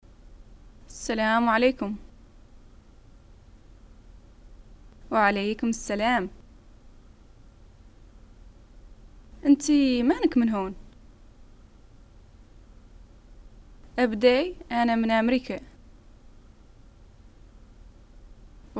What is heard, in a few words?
personal backg, arabic north african hassaniya dialogues